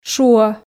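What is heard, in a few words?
"шуӧ", Komi, female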